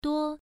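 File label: "duo" Mandarin, first tone, pronunciation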